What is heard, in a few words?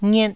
"ngiet1", Taiwanese syllable